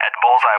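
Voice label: "at bullseye", English, USA; DCS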